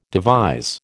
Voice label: "devise", American